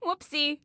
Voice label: "Ooops", Female; Female 02

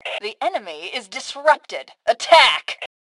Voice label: Enemy Disarray, Female; T2 Female 03

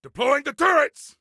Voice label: Self Task Deploy Turrets, Soldier Male; Soldier Male 03

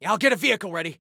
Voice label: Self Task Vehicle, Soldier Male

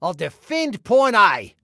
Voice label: DEFEND, Male

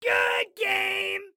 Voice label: Compliment Good Game, Excited Guy

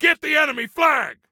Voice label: Attack Flag, Soldier Male; Soldier Male 03

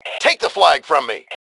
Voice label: Flag Take, Male; T2 Male 04

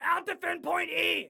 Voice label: I'll defend, Excited Guy

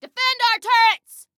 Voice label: Defend Turrets, Female

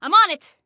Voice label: On It, Female; Female 02

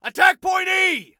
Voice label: ATTACK, Male; T2 Male 01

